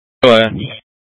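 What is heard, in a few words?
Incident Tactical 1, Scanner audio recording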